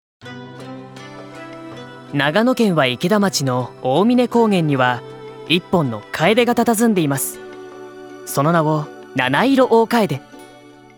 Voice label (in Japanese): ナレーション